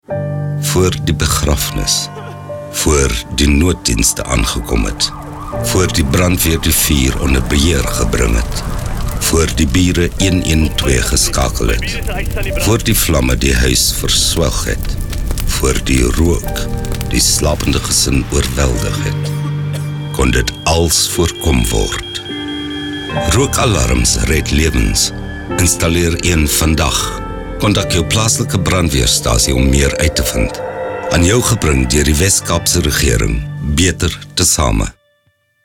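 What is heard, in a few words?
smoke alarm radio afrikaans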